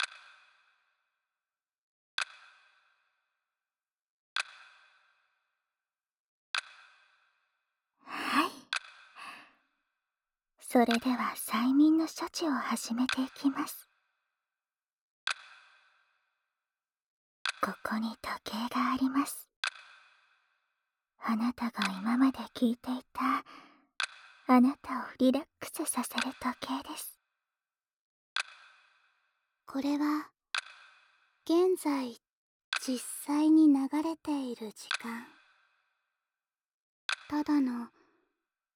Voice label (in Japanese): 催眠導入; リラックスとトランス。あるいは時間遡行催眠